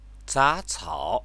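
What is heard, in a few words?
"zacao", Chinese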